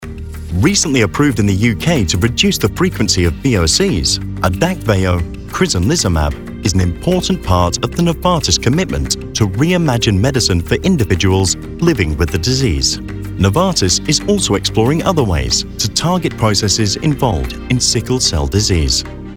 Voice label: Corporate formal voiceover